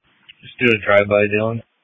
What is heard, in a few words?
Police Tactical Encrypted